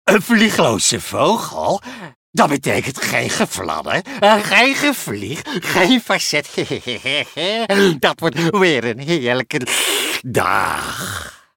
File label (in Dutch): Typetjes animatie en nasynchronisatieworkshop